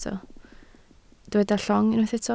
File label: "llong", Welsh